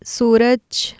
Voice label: "suraj", Urdu